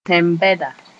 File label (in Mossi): "tẽn-bɛda", Moore